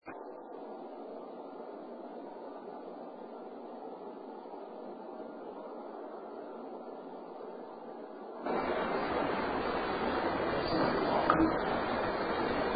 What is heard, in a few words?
male v; Case 012 Pocahontas Fuel Building 2nd Floor Art Room